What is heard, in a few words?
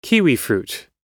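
"kiwi fruit", American English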